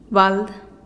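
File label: "bald", Teotitlán del Valle Zapotec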